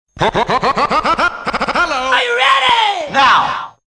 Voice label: HALLO ARE YOU READY JINGLE; kermis; Sound Around